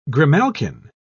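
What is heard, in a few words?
"grimalkin", British English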